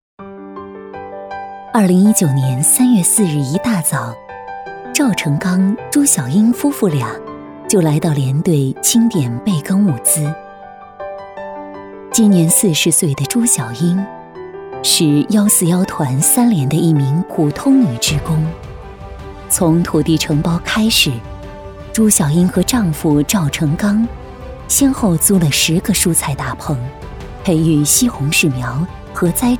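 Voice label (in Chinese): 专题温柔亲切; 专题爱的港湾温柔亲切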